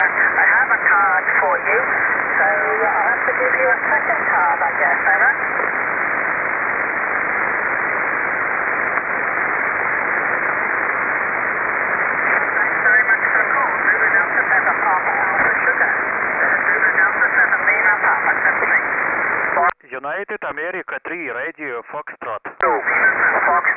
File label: 15m SSB